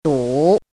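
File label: "dǔ", Mandarin Chinese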